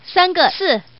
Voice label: Woman, tuple